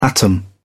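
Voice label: "atom", English, uk British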